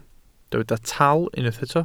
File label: "taal", Welsh